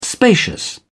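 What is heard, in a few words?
"spacious", English, British English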